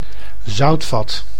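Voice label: "zoutvat", Dutch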